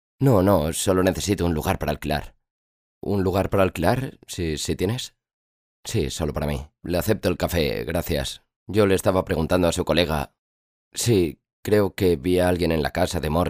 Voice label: locutor spanish voiceover

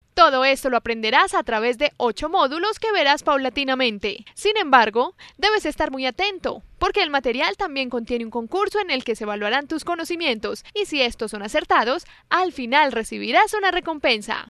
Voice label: locutora colombiana colombian voiceover

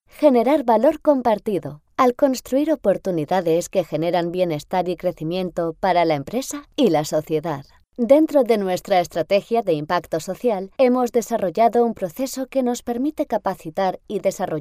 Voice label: locutora spanish voiceover

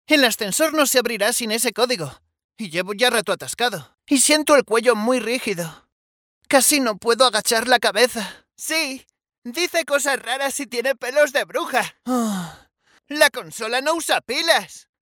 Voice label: locutor spanish voiceover; doblaje de personaje para video juego

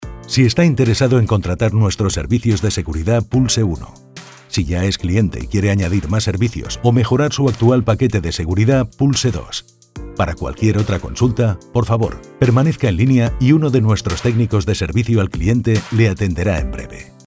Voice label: locutor spanish voiceover ivr; locutores spain

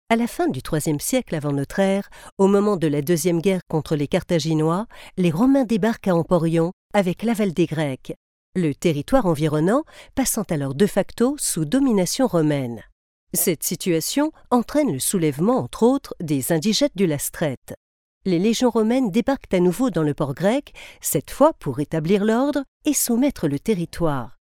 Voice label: locutora francesa french voiceover; French voice over. Audioguide "Ampurias"

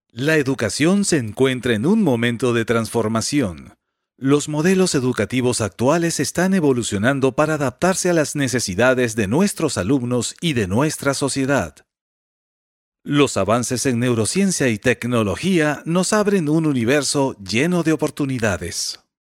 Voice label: locutor spanish neutral voiceover

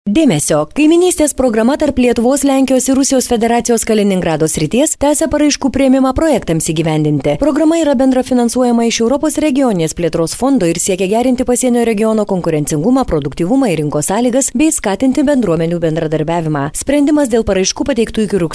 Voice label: locutora lituana lithuanian female voiceover

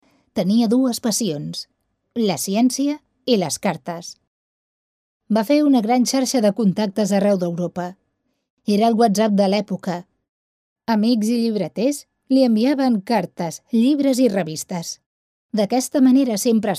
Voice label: locutora catalana catalan voiceover

